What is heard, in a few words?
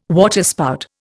"waterspout", Indian